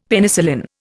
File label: "Penicillin", Indian; Dictionary